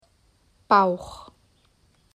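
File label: "Bauch", German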